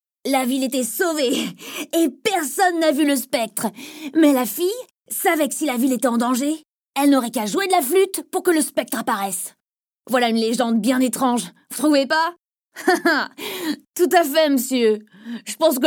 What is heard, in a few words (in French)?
Voix Petit garcon, Jeu vidéo; Crow